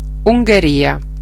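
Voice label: "hungary", Italian; geography; gcompris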